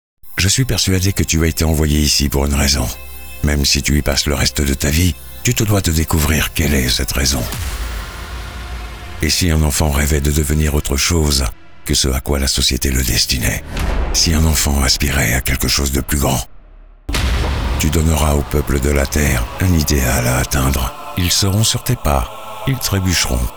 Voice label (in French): voix off training